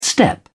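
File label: "step", British English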